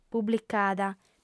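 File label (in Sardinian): "publicada", female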